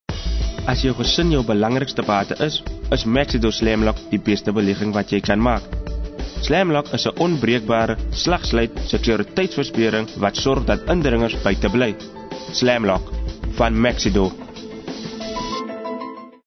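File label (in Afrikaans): Afrikaans Hard Sell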